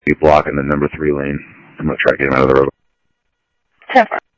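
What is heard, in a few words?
Sheriff East County, scanner audio trunk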